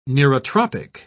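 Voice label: "neurotropic", British English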